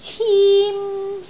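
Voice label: "cim1", Cantonese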